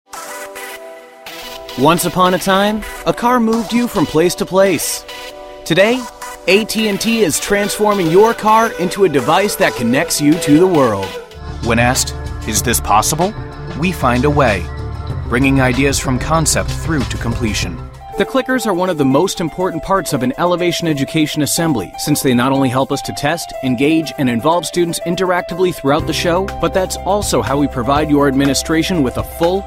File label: us corporate narration